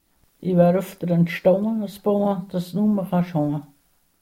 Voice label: Salez Spruch, Sennwalder Dialekt

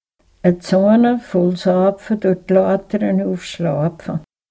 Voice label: Frümsen Spruch, Sennwalder Dialekte

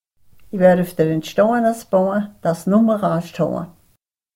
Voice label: Sax Spruch, Sennwalder Dialekte; Ich werfe dir einen Stein ans Bein, damit du nicht mehr nach Hause kannst

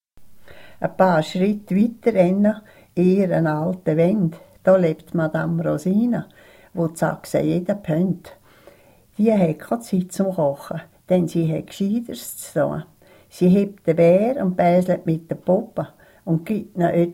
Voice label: sax gedicht

